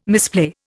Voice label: "misplay", Indian